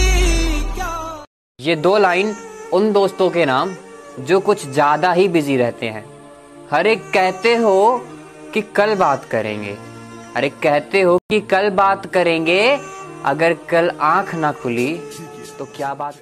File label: funny voice love ringtone